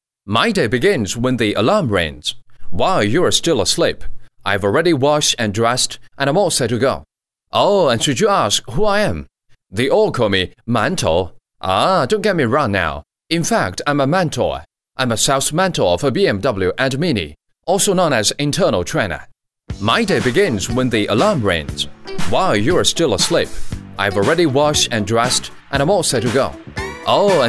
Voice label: 英语男, 内训; 宝马

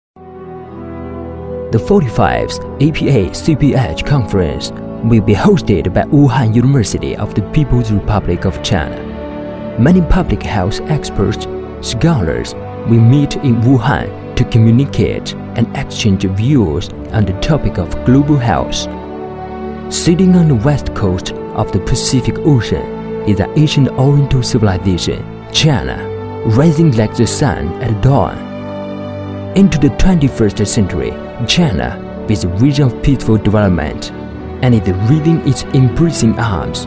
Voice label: 英语男, 宣传片; 武汉大学第45届国际卫生会议

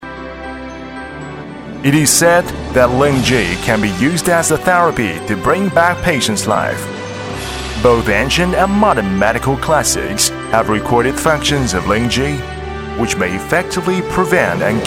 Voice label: 英语男-磁性有质感

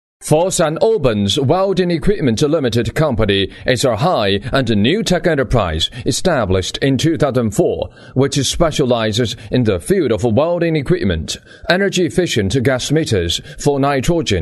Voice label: 英语男 专题; 实力锐气佛山OBENZ

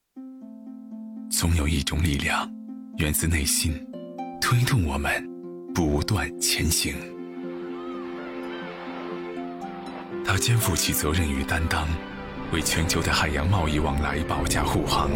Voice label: 国语男 形象片 公益; 公益十九大

